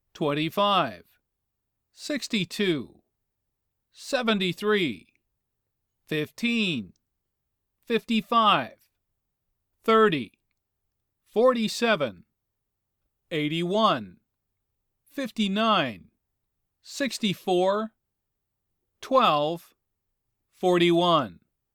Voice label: numbers dictation